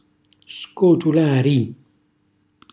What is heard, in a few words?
"scotulari", Calabrese; cucina calabrese